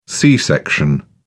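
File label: "c-section", British English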